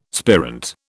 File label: "spirant", British